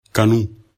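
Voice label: "kanu", Bambara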